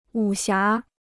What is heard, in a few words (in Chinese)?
"武侠", Chinese